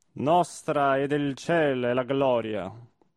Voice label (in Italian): espressivo; Otello; Esultate